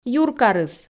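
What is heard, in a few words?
"юркарыс", Komi, female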